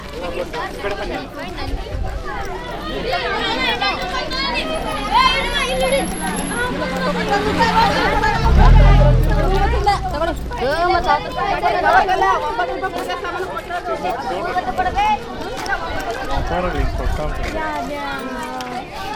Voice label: Indian Children Street Voices